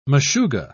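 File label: "meshuga", British English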